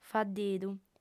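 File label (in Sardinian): "faddidu", female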